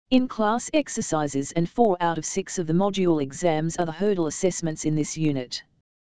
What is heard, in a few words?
dialog